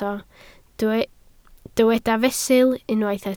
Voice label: "fesul", Welsh